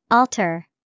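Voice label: altar, English vocabulary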